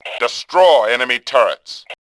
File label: Male; Tribes2